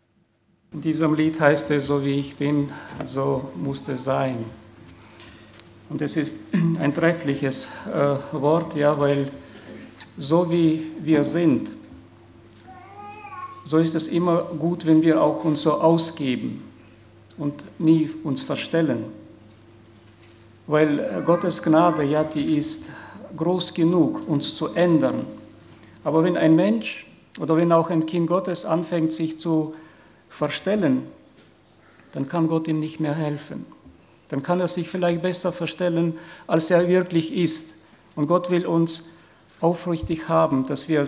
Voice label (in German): Ansage; Gottes Willen erkennen und tun